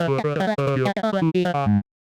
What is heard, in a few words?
chipper medium clear, voice archetypes; voxel audio preview